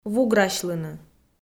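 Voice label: "вуграсьлыны", Komi, female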